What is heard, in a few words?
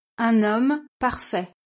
"un homme parfait", French